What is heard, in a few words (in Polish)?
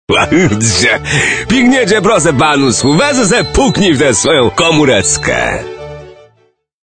Głos Męski - Piknie Cie Prose, Panocku